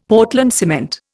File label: "Portland cement", Indian; Dictionary